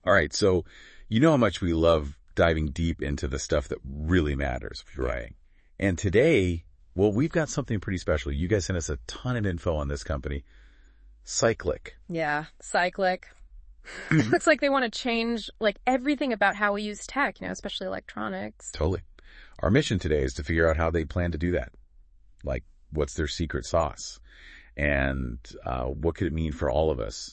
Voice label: podcast; Cyclick